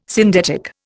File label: "syndetic", Indian